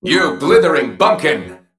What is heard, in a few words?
Male Mech Attack